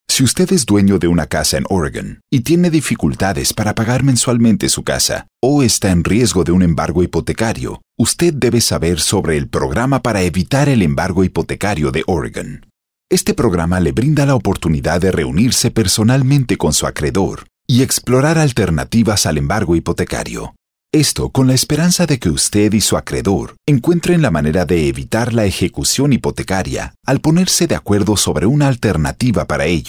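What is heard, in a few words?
Narration No Music, friendly, natural, warm, authoritative; Spanish (Latin and Castillian)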